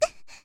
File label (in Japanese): "ku", syozyo